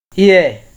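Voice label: "hear", Nigerian Pidgin, male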